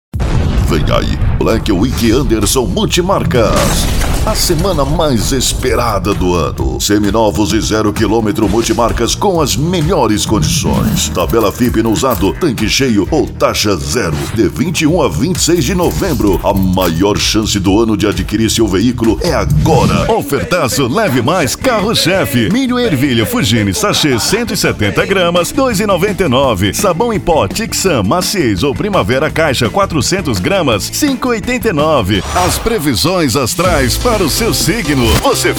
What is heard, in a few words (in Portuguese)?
LOCUTOR